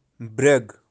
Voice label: "Brag", kashmiri birds